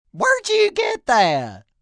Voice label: Whered You Get, male; Talking